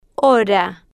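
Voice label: "hora", Spanish, female, slow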